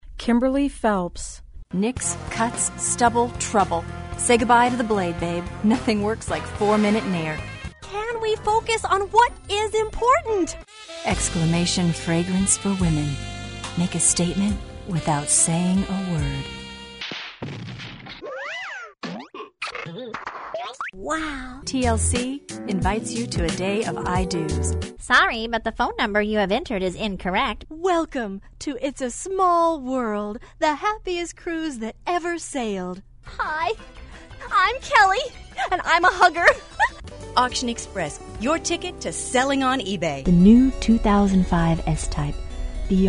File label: voiceover female